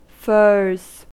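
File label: "furs", American English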